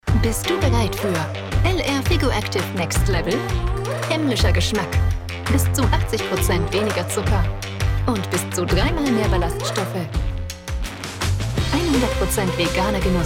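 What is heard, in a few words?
Commercial DE, German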